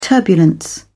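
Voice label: "turbulence", British English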